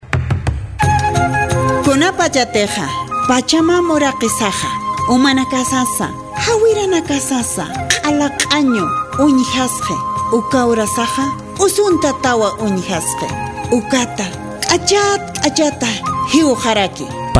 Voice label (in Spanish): Ambiente Spot